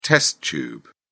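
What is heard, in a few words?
"test tube", English, British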